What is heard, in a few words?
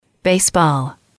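"baseball", English, female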